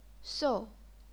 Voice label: "sou4", chinese pinyin